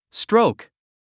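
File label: "stroke", English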